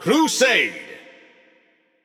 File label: Announcer Mode Crusade; BombKing